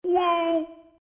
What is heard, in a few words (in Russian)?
multjashnoe obizhennoe; мультяшные звуки wow